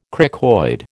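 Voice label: "cricoid", American English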